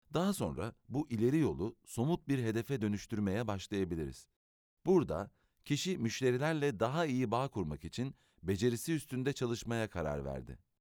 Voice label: turkish e-learning

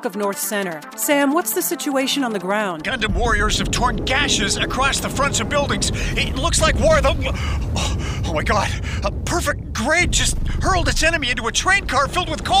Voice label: english american video games

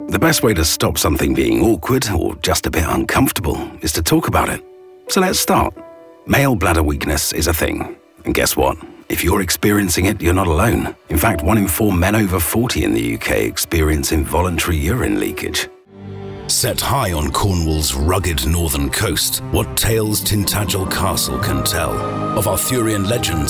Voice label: english british others

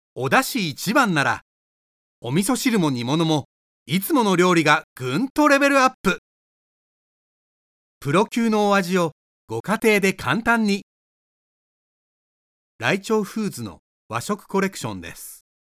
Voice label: japanese promos

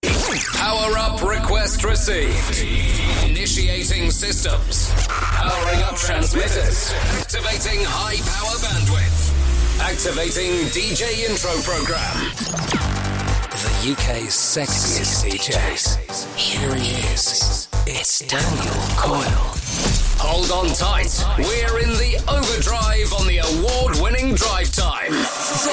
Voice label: english british radio imaging

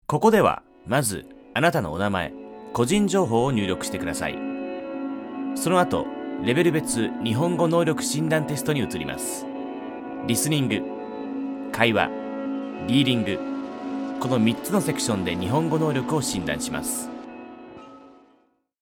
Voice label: japanese e learning

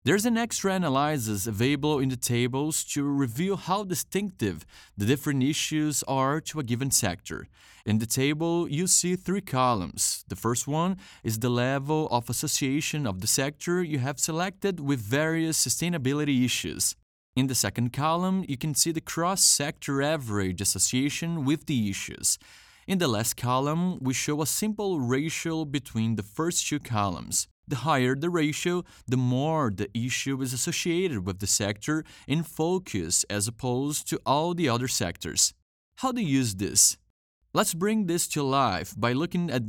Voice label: english e-learning

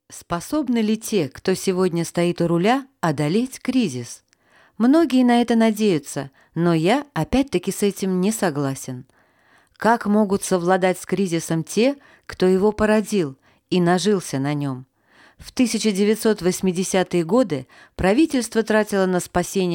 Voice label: russian audiobooks

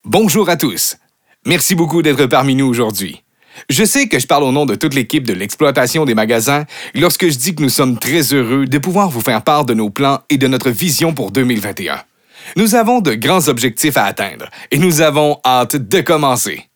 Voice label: french canadian corporate videos

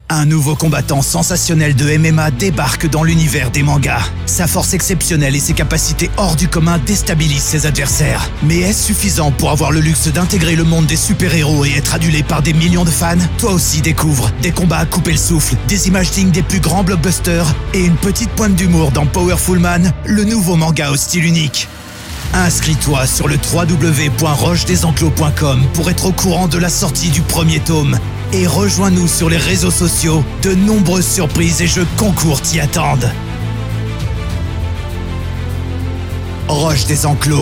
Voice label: french movie trailers

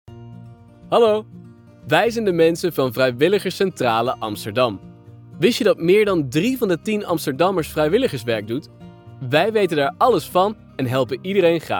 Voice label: dutch narration

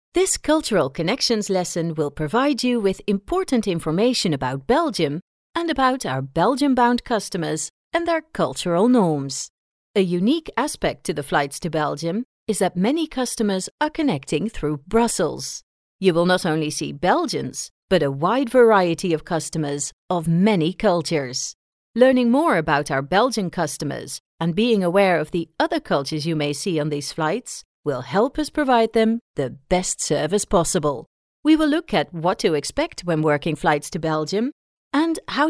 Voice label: english corporate videos

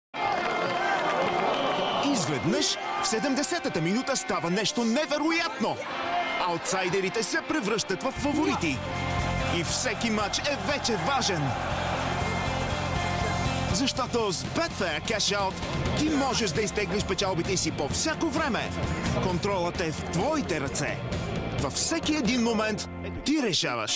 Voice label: bulgarian tv shows

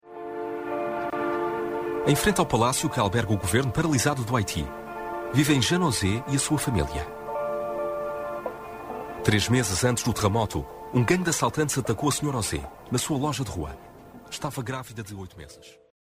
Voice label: portuguese documentaries

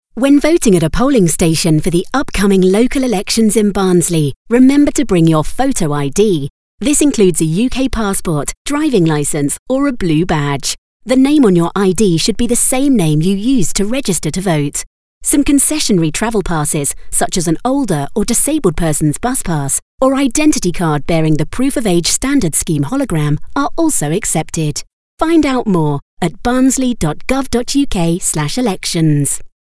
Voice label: english british political ads

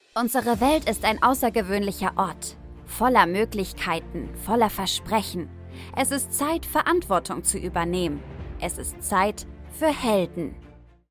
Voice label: German movie trailers; german voice actors

